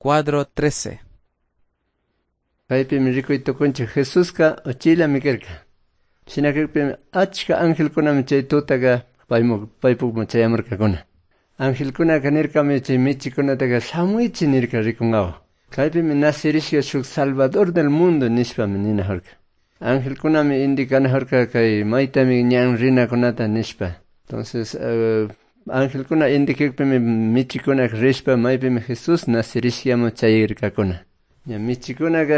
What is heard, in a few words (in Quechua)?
good news quichua cayambe